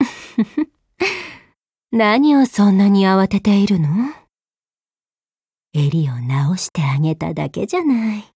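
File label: Daily, Japanese; Loreley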